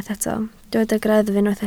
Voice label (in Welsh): "greddf" Welsh